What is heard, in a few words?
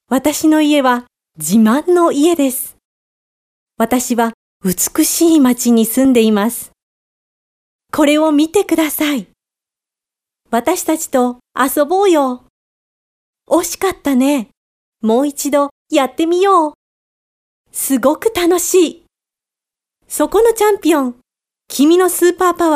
JP fem children